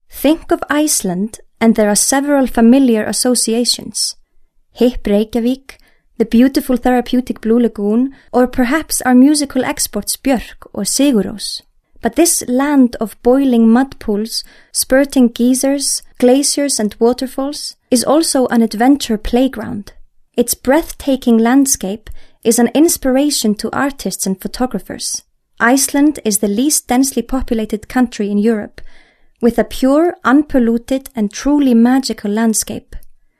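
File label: Icelandic, English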